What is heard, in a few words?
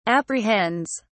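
"apprehends", British English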